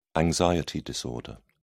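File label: "anxiety disorder", British English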